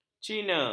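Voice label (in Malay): "cina", Malay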